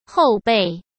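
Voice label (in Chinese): "后备", Mandarin Chinese; hsk; chinese flashcards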